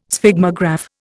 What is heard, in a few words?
"Sphygmograph", Indian